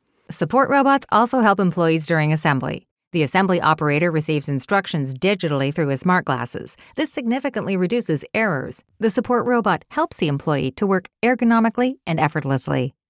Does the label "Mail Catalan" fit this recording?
No